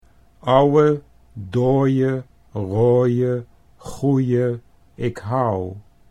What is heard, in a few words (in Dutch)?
ouwe dooie, Dutch